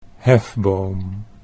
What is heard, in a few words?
"hefboom", Dutch